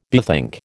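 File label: "bethink", American English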